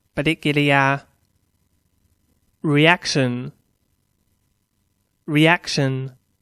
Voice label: "reaction", English; Noun

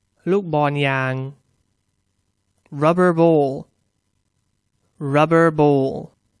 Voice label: "rubber ball", English; Toys

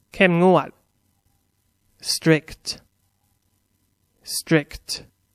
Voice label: "strict", English; Adj

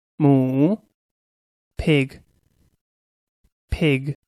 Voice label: "pig", English; noun

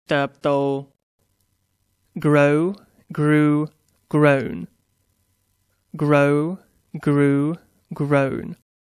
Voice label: "grow", English; Verb

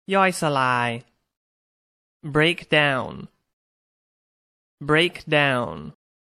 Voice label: "break down", English; Science New Vocabulary

